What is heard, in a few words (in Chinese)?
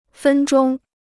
"分钟", Chinese